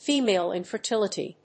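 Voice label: "Female infertility", English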